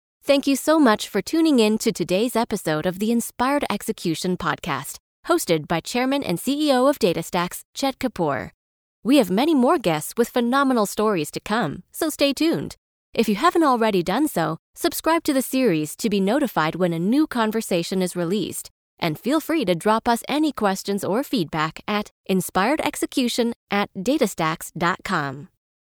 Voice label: Podcast sample; Datastax; English (North American)